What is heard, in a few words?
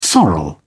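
"sorrel", British English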